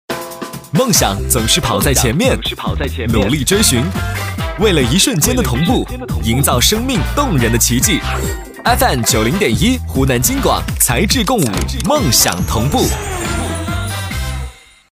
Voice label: 电台包装 男 年轻干脆; 【电台包装】十二月频宣男84-年轻干脆

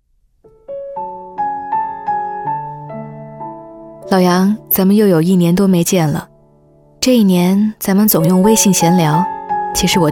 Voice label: 专题 诉说, 女

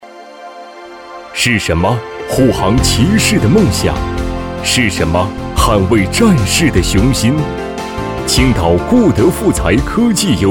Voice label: 专题 自然大气, 男; 青岛; 青岛固德

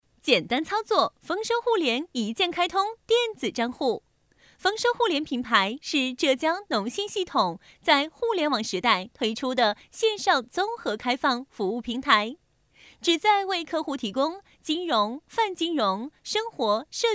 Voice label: 专题, 女; 飞碟说

